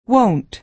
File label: "won't" USA